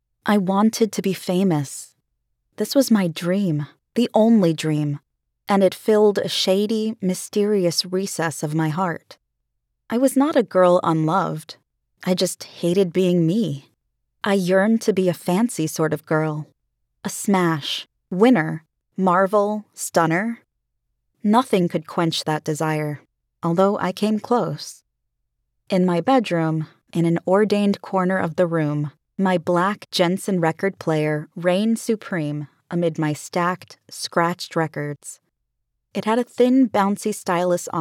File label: Audiobook Promo Monologue